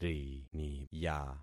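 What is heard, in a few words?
"riniya", concatenated syllables; Affixing experiment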